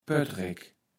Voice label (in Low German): "Pöttreck", Platt